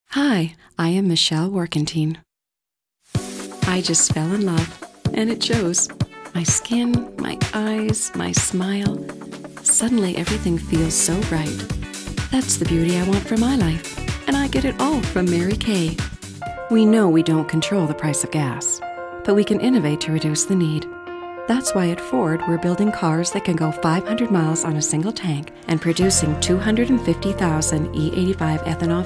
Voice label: voice over artist